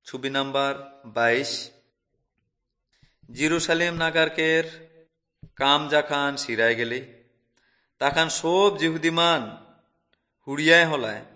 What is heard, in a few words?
urao, drama